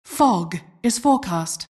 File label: announcer custom weather alert